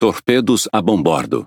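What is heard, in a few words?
Brazilian Portuguese Speech Alarms Torpedo Left; World Of Warships; PT BR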